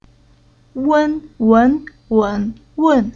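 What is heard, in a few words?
"Wen", chinese syllable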